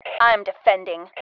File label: iamdefending, FEM